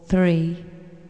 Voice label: Announce Countdown "Three"; BlastChamber PSX; Demo Interactive CD

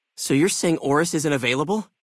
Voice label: male adult default

